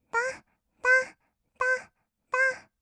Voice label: だっだっ, kawaii voice; ASMR; 合いの手; 1200 kawaii voice!!

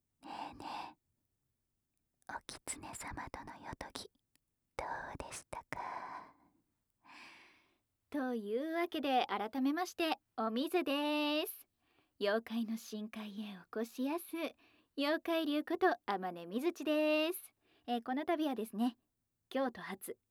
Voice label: 自由談話 フリートーク, ASMR

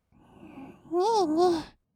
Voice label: 「にぃに」, kawaii voice; ASMR; 二人称

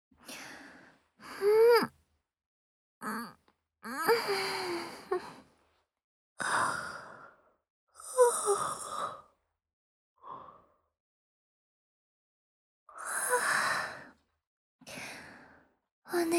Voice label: 添い寝, ASMR

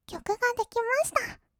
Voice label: 曲が出来ました, kawaii voice; ASMR; 色々なワンショット

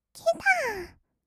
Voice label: きたー！, kawaii voice; ASMR; 色々なワンショット

